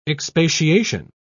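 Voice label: "expatiation", British English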